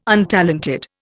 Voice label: "untalented", Indian